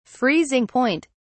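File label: "freezing point", British English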